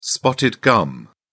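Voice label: "spotted gum", British English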